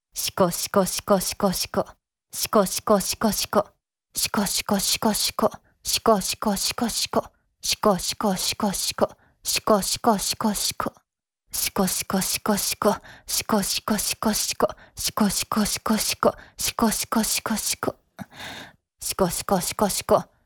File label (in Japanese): しこしこの掛け声で自慰を促す; 自慰支援(普)